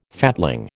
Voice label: "fatling", American